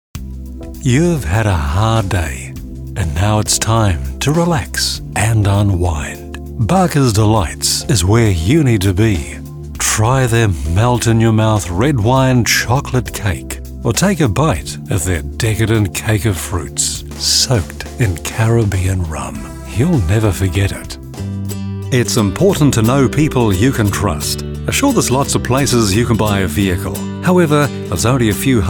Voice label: Commercials